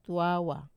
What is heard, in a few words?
"tawa" to fall, Wamesa